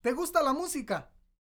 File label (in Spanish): GUSTA MUSICA, MEXICAN, World Voices; ILIO; Vocal Planet VOL-5